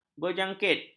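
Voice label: "berjangkit", Malay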